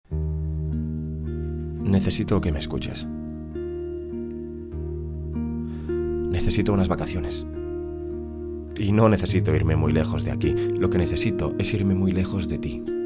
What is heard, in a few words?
TV Spot Spanish